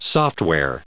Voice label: "software", us